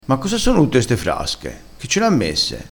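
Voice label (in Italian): "frasca", vocabolario dialettale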